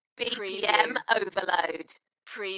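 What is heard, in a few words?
UK female, Dalekness, voice drop; Bpm overload; beanstalk audio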